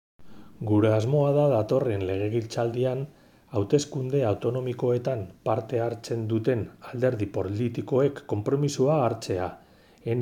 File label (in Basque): euskera